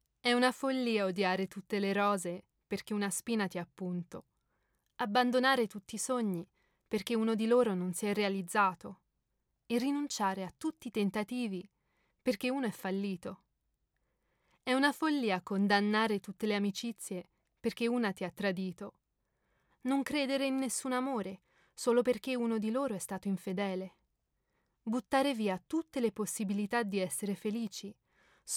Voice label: female Italian narration; english, french and italian